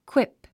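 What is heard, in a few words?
"quip", English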